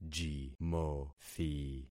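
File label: "jimothi", concatenated syllables; Affixing experiment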